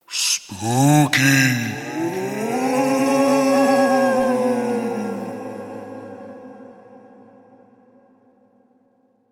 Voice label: Spooky Spoken, The word spooky spoken in deep ominous voice with wailing ghosts.; Evil Voices; Horror